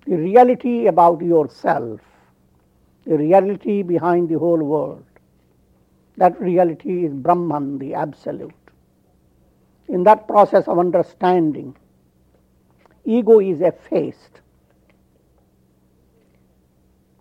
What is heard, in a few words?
Meditation in Jnana Yoga